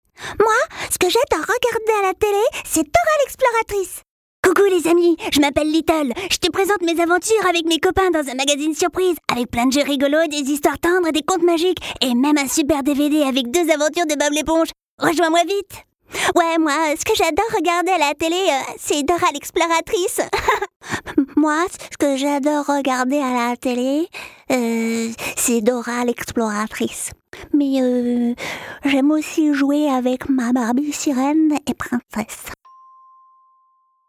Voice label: voix enfantines

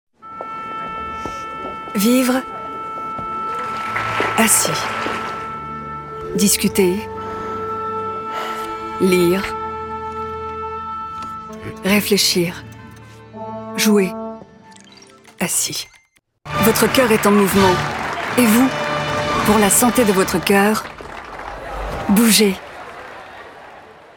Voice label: spot TV; Vivons en mouvement

